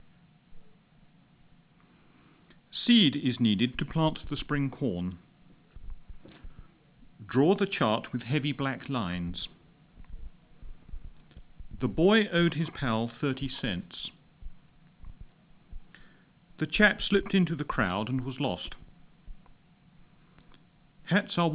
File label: british, open speech; uk